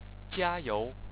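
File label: "jia1you2", Chinese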